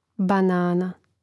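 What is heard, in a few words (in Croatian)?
"banana", Croatian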